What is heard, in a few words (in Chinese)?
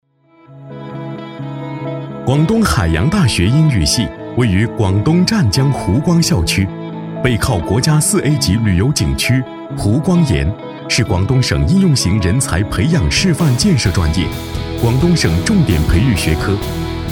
配音高校招生样音年轻